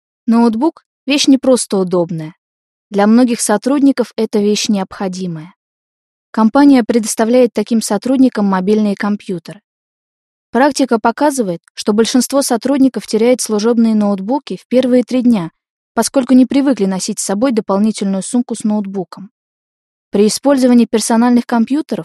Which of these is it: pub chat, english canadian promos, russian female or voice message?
russian female